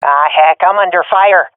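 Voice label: taking fire, swat female; vo; Counter Strike Model Pack; CS:GO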